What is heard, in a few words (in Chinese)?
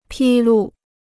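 "披露" Chinese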